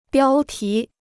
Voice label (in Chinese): "标题", Chinese